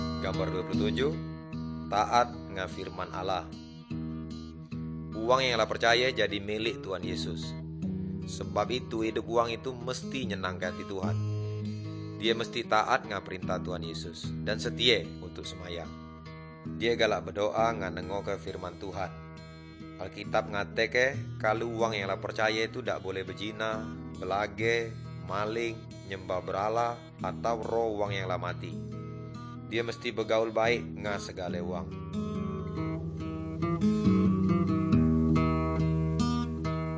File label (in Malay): good news melayu tanjung raja